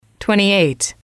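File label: "twenty eight", English, female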